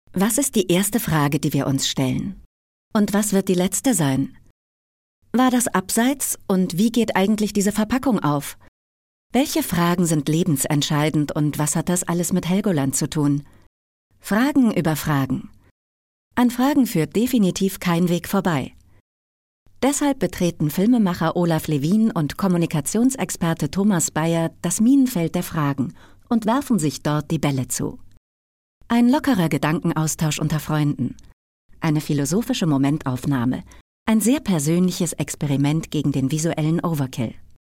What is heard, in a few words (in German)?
Podcast Intro; Fragen ueber Fragen